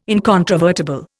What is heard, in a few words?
"incontrovertible", Indian